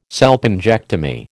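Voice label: "salpingectomy", American English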